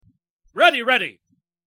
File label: military soldiers pr